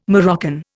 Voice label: "Moroccan", Indian